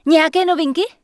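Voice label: Human Female Standard NPC Vendor, Czech; wowpreklad; czwow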